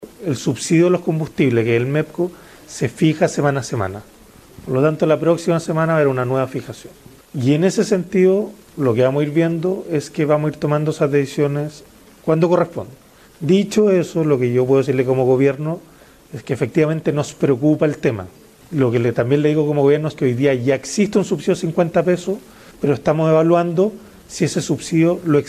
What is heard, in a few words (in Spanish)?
cuña